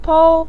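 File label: "pou", Mandarin Chinese neutral tone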